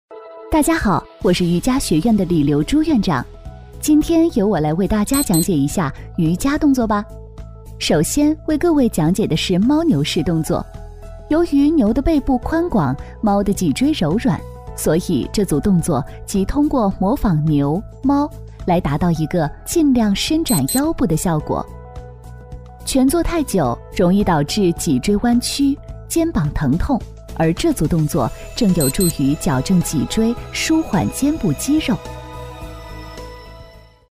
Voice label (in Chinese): 女 瑜伽讲解 瑜伽动作 亲切介绍; 女21-大气知性 甜美亲切